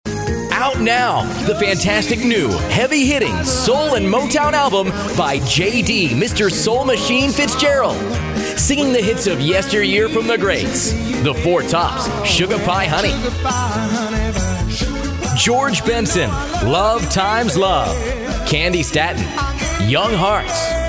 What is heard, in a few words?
Radio Advert